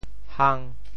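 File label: "hang5", Teochew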